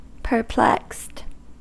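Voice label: "perplexed", American English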